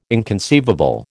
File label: "inconceivable", American